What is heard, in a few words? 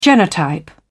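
"genotype", British English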